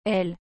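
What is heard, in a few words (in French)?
"aile", French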